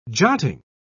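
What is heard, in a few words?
"jotting", British English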